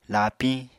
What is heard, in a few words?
"laapiñ", Apatani